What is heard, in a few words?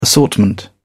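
"assortment", British English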